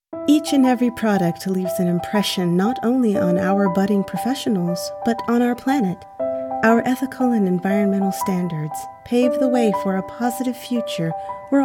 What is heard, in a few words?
Explainer